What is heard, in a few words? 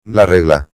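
"la regla", Spanish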